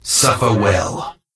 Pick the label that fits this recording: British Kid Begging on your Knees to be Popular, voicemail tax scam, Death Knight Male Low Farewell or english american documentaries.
Death Knight Male Low Farewell